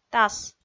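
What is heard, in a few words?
"тас", Komi, female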